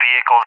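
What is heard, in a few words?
"vehicles" English, speech; DCS; Allied Flight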